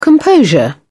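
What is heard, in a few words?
"composure", English, British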